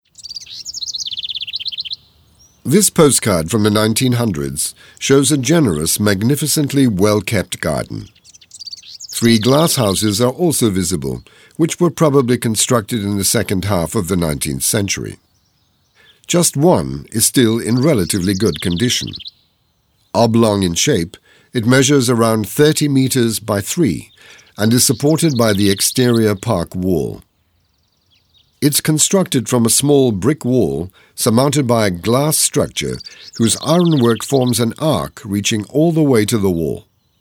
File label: audioguide en adulte; jardin; modave